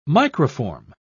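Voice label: "microform", British English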